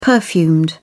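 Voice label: "perfumed", British English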